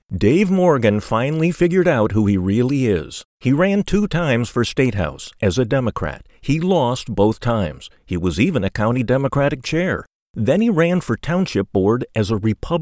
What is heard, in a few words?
Political Campaign Ad